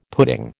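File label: "pudding", American English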